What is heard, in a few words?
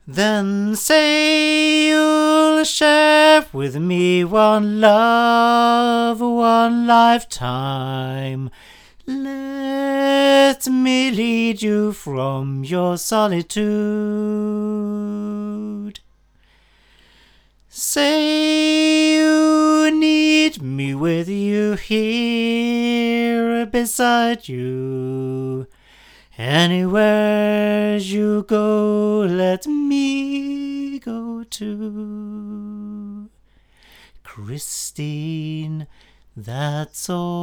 male, microphones; Lauten Oceanus